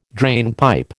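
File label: "drainpipe", American English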